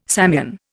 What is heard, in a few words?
"Samian", Indian